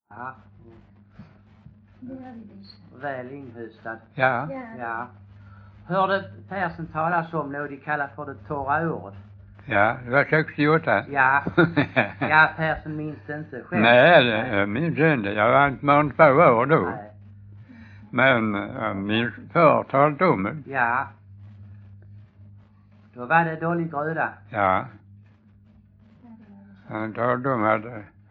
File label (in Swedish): Stehag Västra Sallerup SK, dialekter; Folkminnen